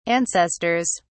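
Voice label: "ancestors", English, British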